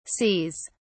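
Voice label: "sees", British English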